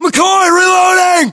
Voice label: inform reloading, us